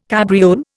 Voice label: "cabriole", Indian